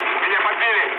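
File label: Russian aircraft destroyed mood low, speech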